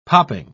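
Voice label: "popping", British English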